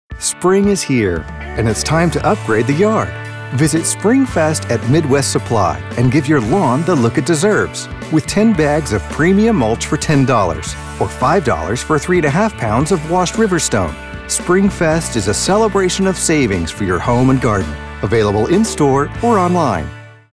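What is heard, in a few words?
voiceover commercial friendly, Male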